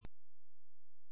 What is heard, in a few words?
call recording